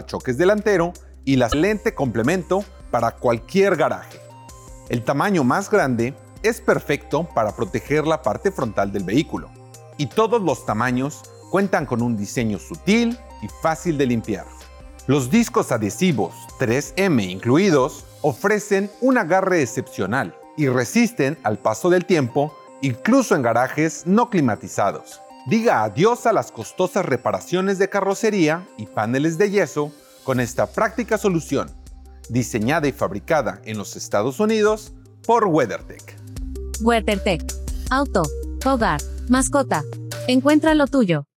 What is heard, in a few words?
Garage Wall Protector Spanish